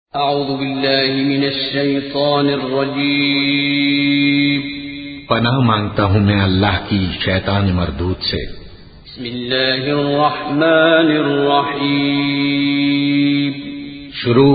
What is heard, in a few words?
Surah Fatiha Arabic Urdu